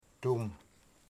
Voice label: "sun", Wamut

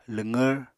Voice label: "lwqvr", Apatani

